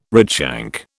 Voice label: "redshank", British